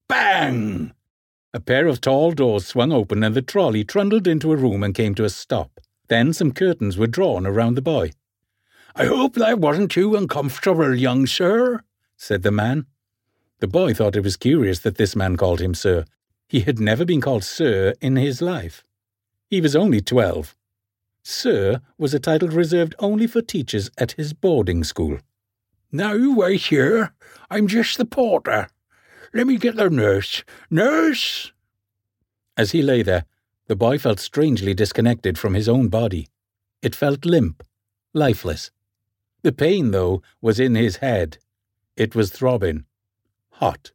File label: welsh audiobook